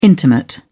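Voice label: "intimate", British English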